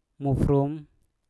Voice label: "mufrum", Mpur; kuning